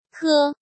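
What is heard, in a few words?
"Kē", Chinese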